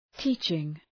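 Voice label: "teaching", English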